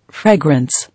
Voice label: "Fragrance", English

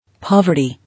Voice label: "Poverty", English

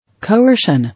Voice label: "Coercion", English

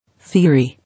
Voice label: "Theory", English

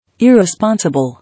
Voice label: "Irresponsible", English

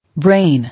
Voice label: "Brain", English

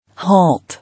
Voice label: "Halt", English

